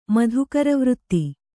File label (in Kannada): "ಮಾಧೂಕರವೃತ್ತಿ", Kannada